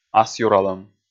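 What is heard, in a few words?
"асъюралӧм" Komi, male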